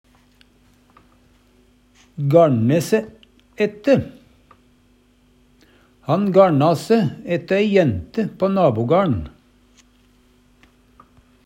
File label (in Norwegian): gaLne se ette, Numedalsmål